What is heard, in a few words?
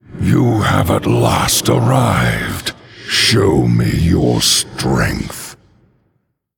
Male Undead; The Lich King